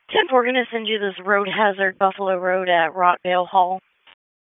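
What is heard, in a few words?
Police Northeast District Dispatch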